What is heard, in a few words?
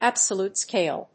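"absolute scale", English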